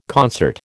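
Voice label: "concert", American English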